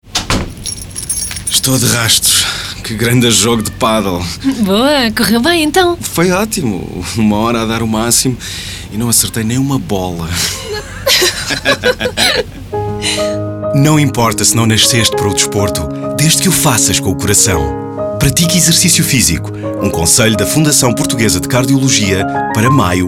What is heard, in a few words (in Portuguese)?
SPOT RADIO; INSTITUTO CARDIOLOGIA